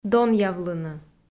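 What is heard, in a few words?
"донъявлыны", Komi, female; kpv audio dict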